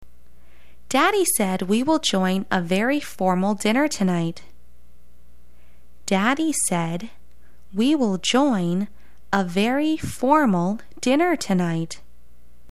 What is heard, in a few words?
formal